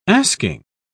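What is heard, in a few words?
"asking", English, British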